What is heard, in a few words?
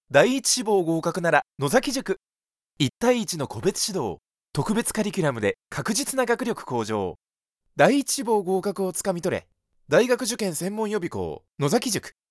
male